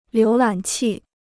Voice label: "浏览器", Chinese

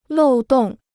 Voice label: "漏洞", Chinese

